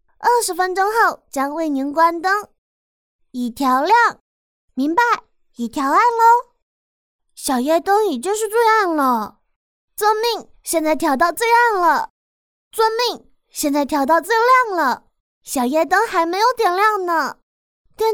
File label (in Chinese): 台湾腔年轻小作精系统对话音